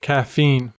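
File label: "caffeine", US English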